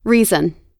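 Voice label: "reason", US English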